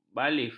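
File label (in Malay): "bailif", Malay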